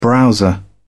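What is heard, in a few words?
"browser", British English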